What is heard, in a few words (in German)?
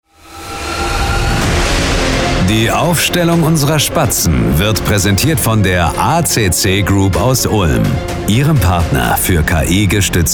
Stadionansage Heim; Stadionansagen – Sponsoring